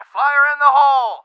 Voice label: fire, English